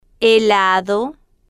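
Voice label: "helado", Spanish, slow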